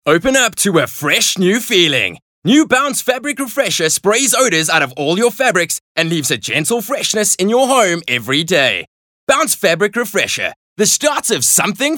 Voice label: English Bright